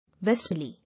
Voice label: "Westerly", English